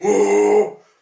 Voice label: hurt; spackenmobs